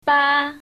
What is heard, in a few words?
shanghainese numbers